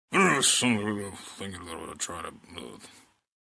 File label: mumbling